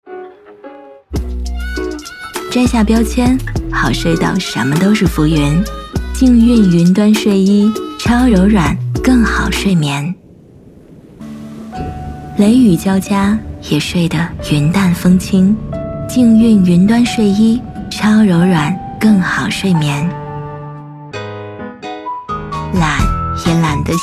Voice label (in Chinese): 女 广告 服饰化妆品慵懒素人; 静韵云端睡衣